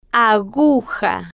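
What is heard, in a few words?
"aguja", Spanish, slow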